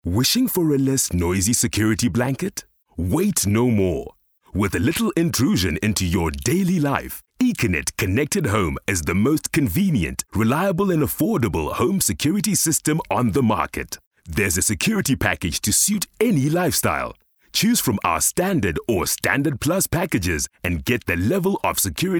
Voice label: English Announcer